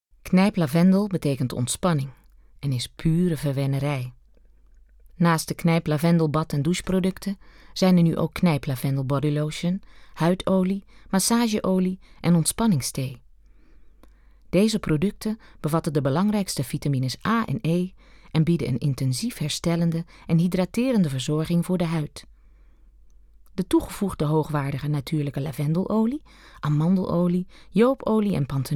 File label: voice over